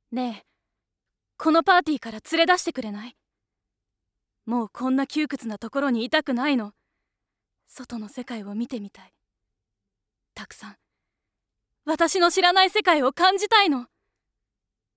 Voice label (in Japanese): Ojousama